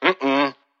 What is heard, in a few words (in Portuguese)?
Negaçao intensa